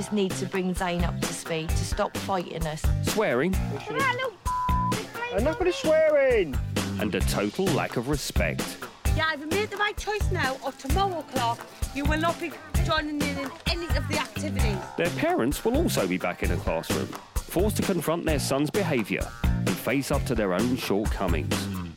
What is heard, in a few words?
School Doco